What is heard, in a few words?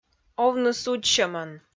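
"овны судзсьӧмӧн" Komi-Zyrian, female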